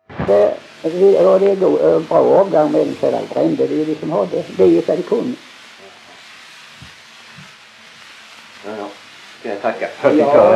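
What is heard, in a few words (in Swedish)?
Tving, Swedish dialect; svenska sagor; Folkminnen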